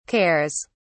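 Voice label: "cares", British English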